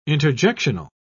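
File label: "interjectional", English, British English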